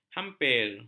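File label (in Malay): "hampir", Malay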